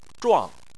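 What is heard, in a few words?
"zhuang" Chinese, fourth tone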